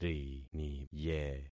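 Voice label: "riniye", concatenated syllables; Affixing experiment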